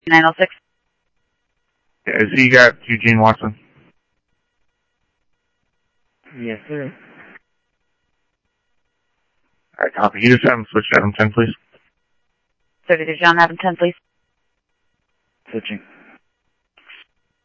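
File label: Phoenix police department, scanner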